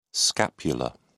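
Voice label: "scapular", British English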